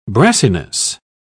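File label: "brassiness", British English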